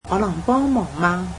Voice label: can I help you, cantonese